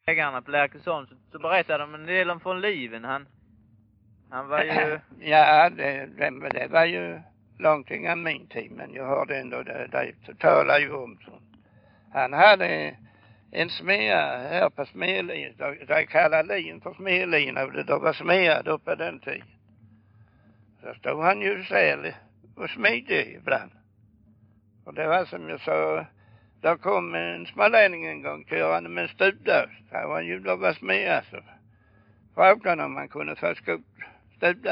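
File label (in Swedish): Örkelljunga Skåne, dialekter; Folkminnen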